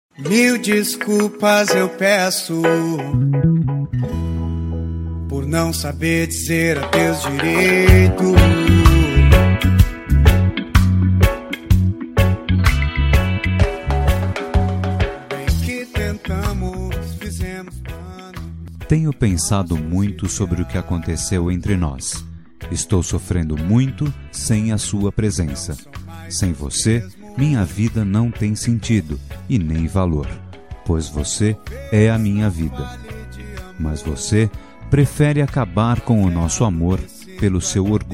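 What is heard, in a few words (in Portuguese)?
reconciliacao masc, Telemensagens; Telemensagem de Reconciliação – Voz Masculina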